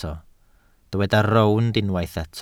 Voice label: "rownd", Welsh

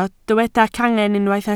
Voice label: "cangen", Welsh

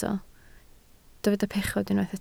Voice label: "pechod", Welsh

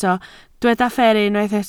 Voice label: "fferru", Welsh

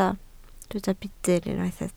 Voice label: "budr", Welsh

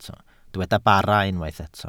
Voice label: "bara", Welsh

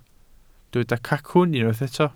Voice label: "cacwn", Welsh

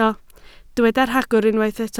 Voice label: "rhagor", Welsh

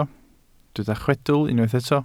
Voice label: "chwedl", Welsh

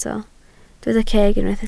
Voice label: "ceg", Welsh

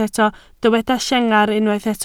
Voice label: "llengar", Welsh

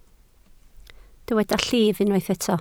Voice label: "llif", Welsh

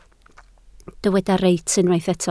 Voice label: "reit", Welsh

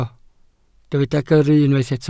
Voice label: "gyrru", Welsh

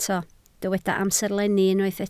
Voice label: "amserlenni", Welsh

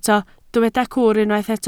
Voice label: "cwr", Welsh

